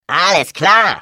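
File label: Defective Turret sabotage factory defect chat, German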